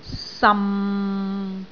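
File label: "sam6", Cantonese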